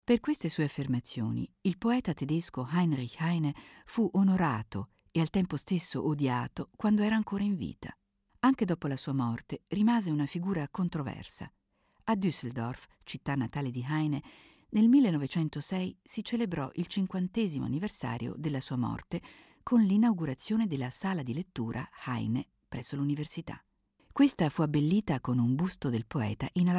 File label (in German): Audioguide; Juedisches Museum